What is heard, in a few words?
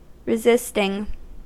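"resisting", US English; pronunciations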